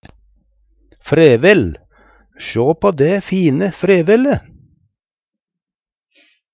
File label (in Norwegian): "frevel", Numedalsmål